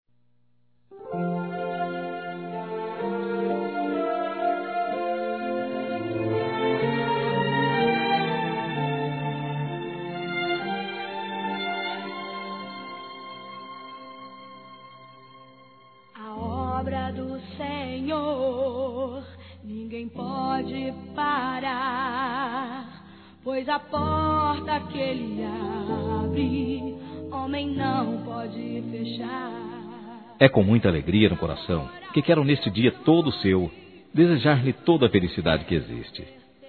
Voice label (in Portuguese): Aniversário Neutro Evangelico Masculino, Telemensagens